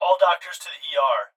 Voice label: Hospital Pa Voice; Blastwave